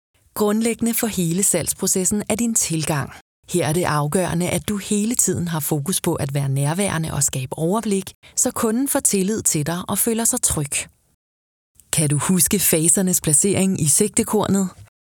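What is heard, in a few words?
Danish explainer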